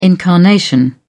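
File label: "incarnation", British English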